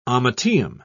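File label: "ommateum", English, British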